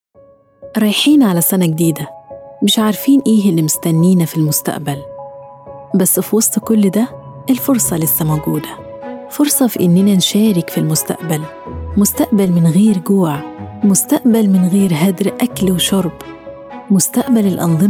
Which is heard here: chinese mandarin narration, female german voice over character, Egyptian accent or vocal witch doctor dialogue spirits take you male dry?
Egyptian accent